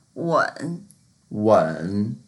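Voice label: "wen3", Mandarin Chinese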